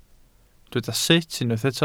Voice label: "sut", Welsh